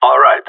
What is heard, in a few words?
affirmative, radio; GSG9